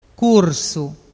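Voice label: "cursu", Vernazzese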